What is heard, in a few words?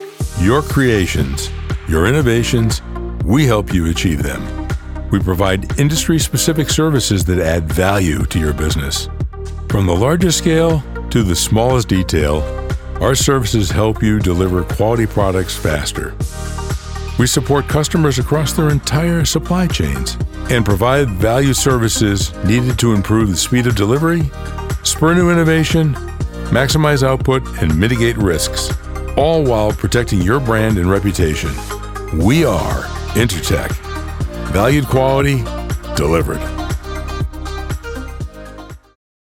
Narrative; Intertek